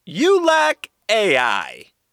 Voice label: vocal dialogue human ai you lack ai male computer voice; emote